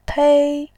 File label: "pei1", voice